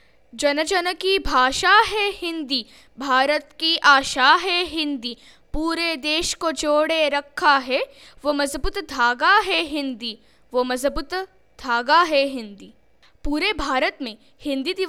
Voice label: Hindi female